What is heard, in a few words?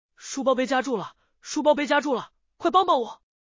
pinch People, training speech; 屏蔽门夹人实训; 屏蔽门夹人实训暂提（待完善）